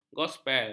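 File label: "gospel", Malay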